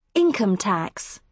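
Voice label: "income tax", British English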